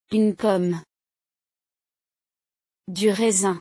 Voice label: learn french fruits